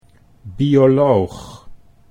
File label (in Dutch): "bioloog", Dutch